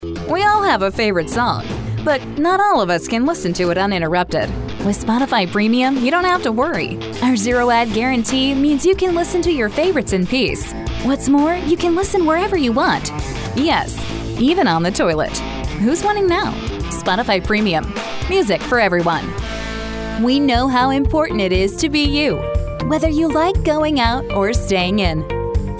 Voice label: Commercial; female; custom home studio